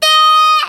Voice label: "de" D#5; 音MAD; 日向

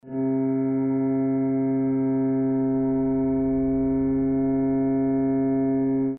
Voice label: bass; 你的名字; Mitsuha

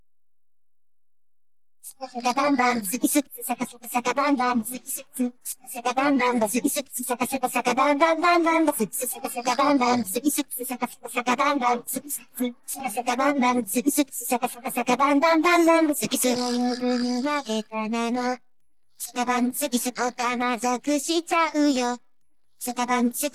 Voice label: Vocals; 琴葉姉妹(neutrino⧸AI VOICE)

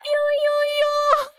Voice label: "yoyoyo" D5; 音MAD; 赛马娘; 麦昆

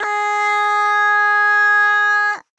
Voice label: "a" G#4; 命运石之门; 助手

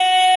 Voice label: "Deeeesu" F#5; 原西

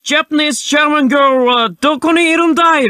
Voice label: Japanese Shaman Girl; 音MAD; 命运石之门

